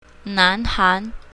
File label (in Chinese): "nan2han2", mandarin